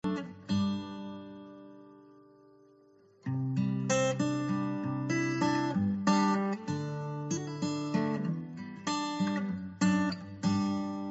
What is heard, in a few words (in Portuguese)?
dia dos namorados namorado, Dia dos Namorados – Para Namorado – Voz Feminina